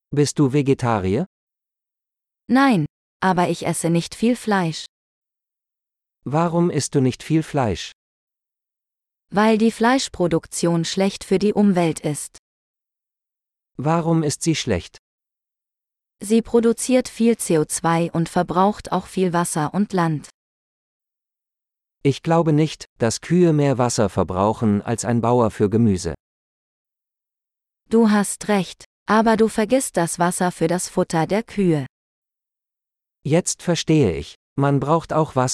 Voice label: Meat Consumption - Dialogue, German; learn-german; topic-course-sustainability-and-climate-change

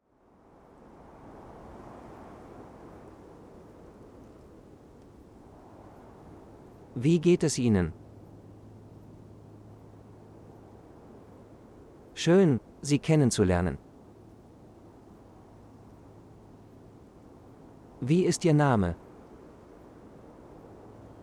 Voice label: Relax Wind Phrases, de-en; The 100 most important phrases

